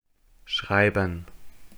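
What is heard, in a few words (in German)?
"schreiben", German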